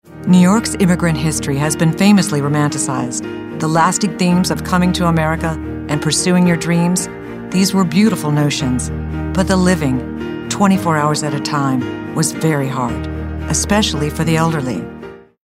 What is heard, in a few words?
Narrative